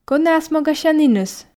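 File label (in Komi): кӧні асмогасяніныс, Komi, female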